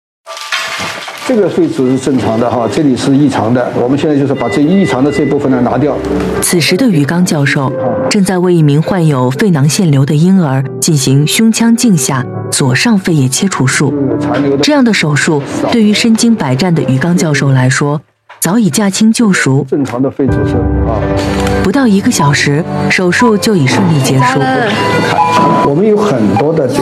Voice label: 女 纪录片; 胎儿医学专家

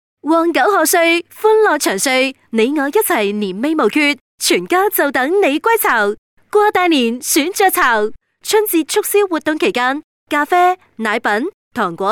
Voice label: 粤女 粤语普通广告; 雀巢

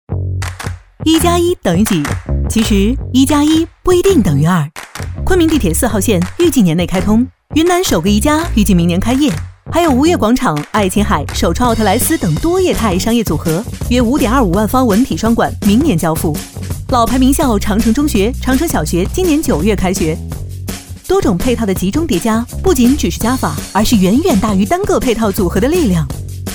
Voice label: 女 广告 快闪快节奏; 新生活万科城

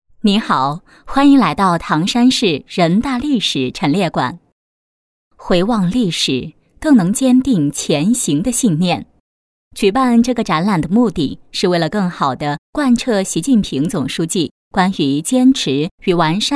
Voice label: 女 解说 历史陈列馆; 唐山市人大历史陈列馆

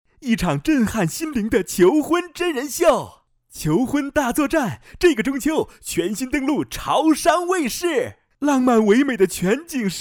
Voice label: 男 栏目导视; 潮商卫视-求婚大作战

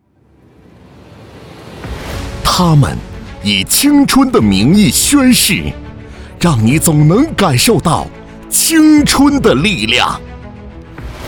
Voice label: 男 宣传片 激昂 激情 校招; 西藏边检总站校招

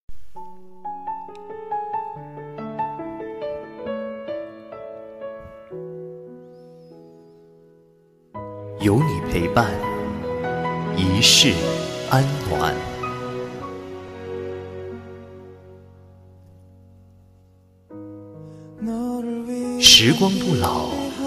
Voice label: 男 独白, 有你陪伴 一世安暖

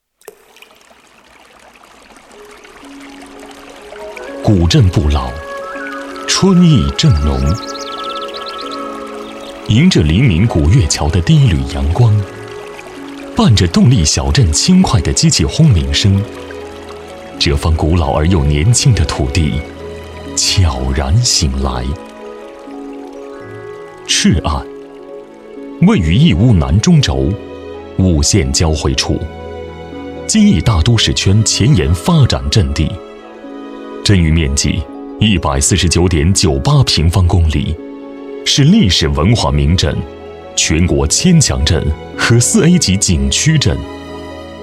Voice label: 男 大气宣传 古镇不老 春意正浓

